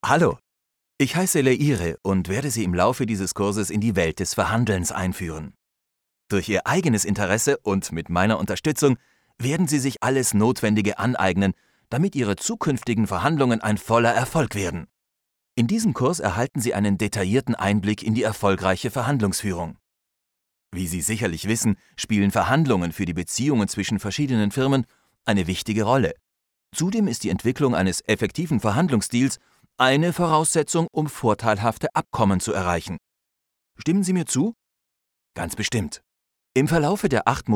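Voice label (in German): elearning, deutsch männer; sprecher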